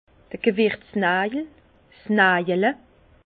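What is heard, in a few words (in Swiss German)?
"gewirtznajel", Alsace Bas Rhin; vin; clou de giroffle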